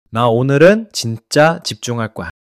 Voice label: neutral